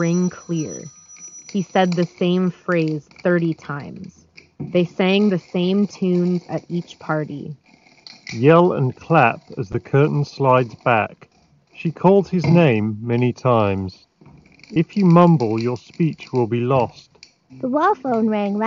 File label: Office microphone sample; Sony WH 1000XM3